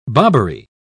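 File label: "bobbery", British English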